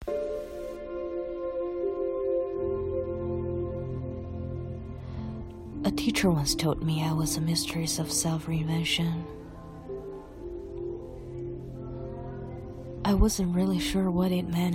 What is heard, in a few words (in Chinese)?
英文电影旁白-深沉正常音